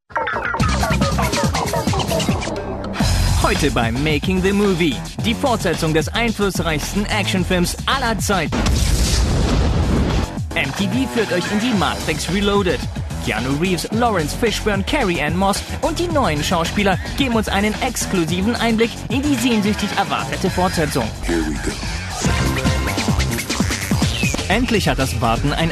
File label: German M promo; MTV